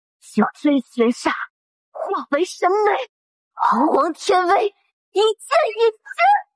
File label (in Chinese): 原声女; 神剑御雷真绝